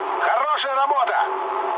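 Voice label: player killed enemy, mood low, Russian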